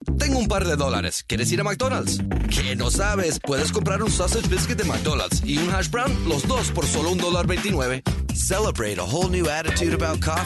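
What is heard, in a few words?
bilingual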